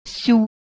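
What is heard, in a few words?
"syuh1", Proto-Indo-European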